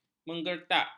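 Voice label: "menggertak", Malay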